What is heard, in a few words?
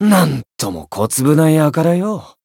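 Voice encounter Japanese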